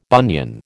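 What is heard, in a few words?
"bunion", American English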